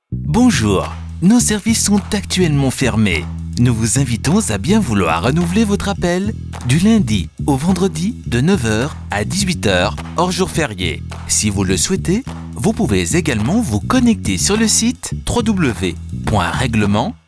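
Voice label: french interactive voice response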